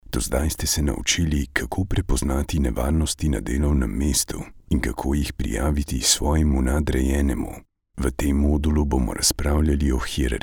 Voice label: slovenian e-learning